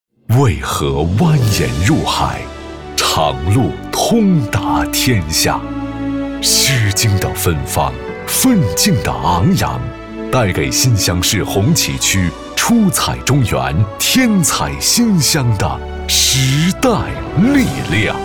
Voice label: 男, 党政专题片

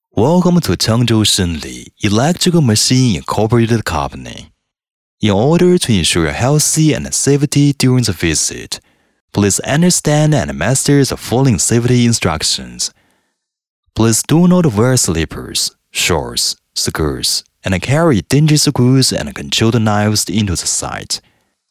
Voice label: W男, 英语企业宣传片, 讲解; 神力安全

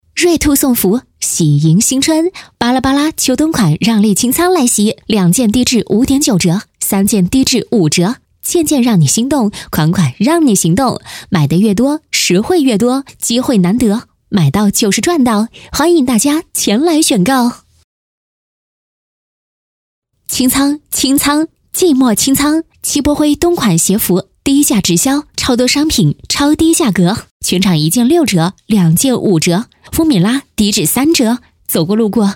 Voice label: 女 促销 瑞兔送福！喜迎新春

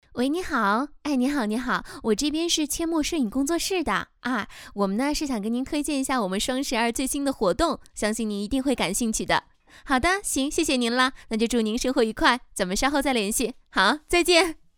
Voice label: 女, 电销外呼-甜美版

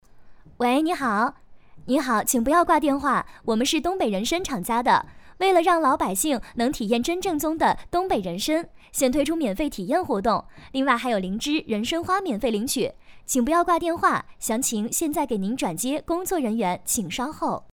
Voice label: 女 电销外呼; 我们是东北人参厂家

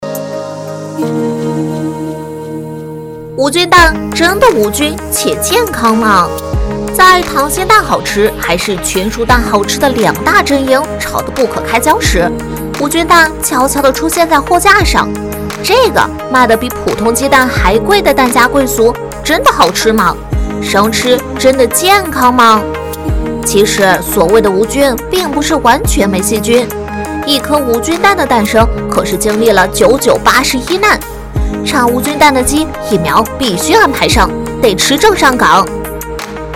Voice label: 女 男童 科普

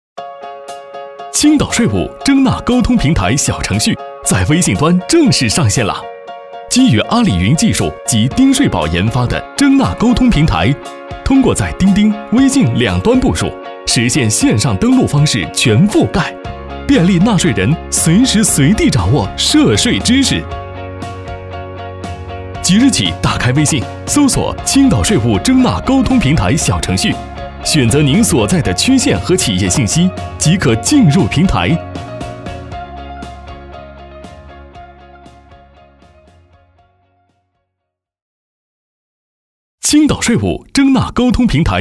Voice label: 男; 飞碟说MG

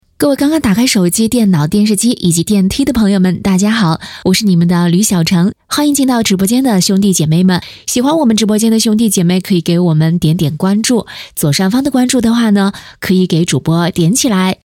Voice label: 短视频带货直播间话术, 女

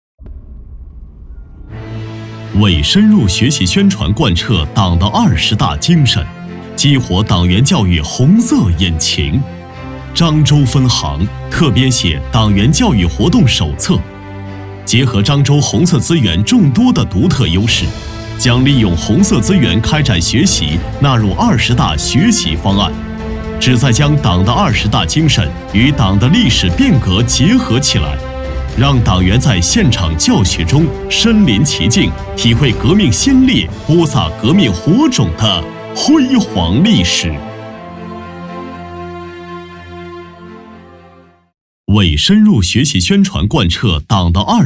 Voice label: A男 专题片党建; 中国银行漳州分行

